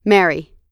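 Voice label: "marry", US English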